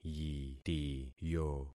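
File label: "yitiyo", concatenated syllables; Affixing experiment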